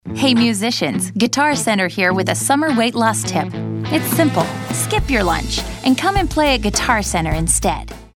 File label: friendly